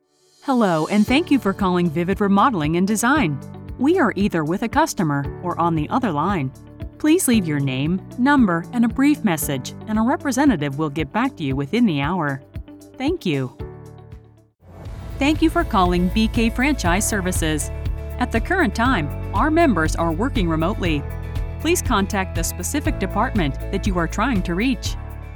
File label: IVR and voicemail